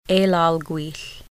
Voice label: side of canoe, Lushootseed